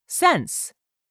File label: "SENSE", english; 3000 words